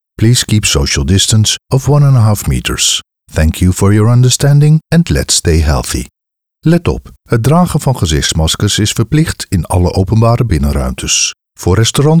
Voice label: tannoy covid messege en nl de